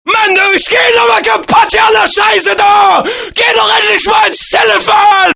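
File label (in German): sächsisch geht mal ans Telefon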